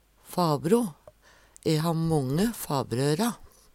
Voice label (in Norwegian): "fabro", Numedalsmål